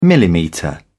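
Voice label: "millimetre", British English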